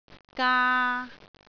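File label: "gaa3", Cantonese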